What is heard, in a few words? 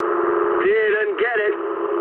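bomb failure mood medium, speech English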